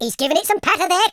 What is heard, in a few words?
uhoh, Footy Fan speech